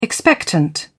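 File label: "expectant", British English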